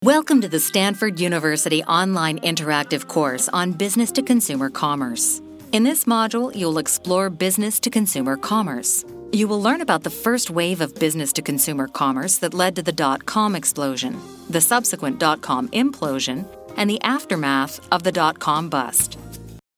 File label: Professional Sincere